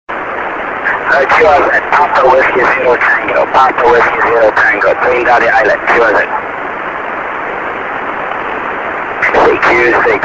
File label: 6m ssb